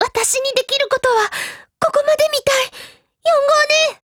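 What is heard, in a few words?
RETREAT, Japanese; UMP9Mod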